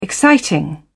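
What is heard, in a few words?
"exciting", British English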